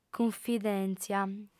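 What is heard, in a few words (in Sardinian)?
"cunfidèntzia", female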